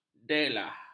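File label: "delah", Malay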